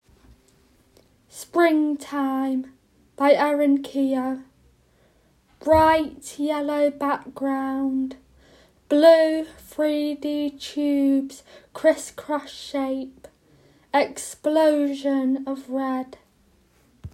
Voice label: audio description; Springtime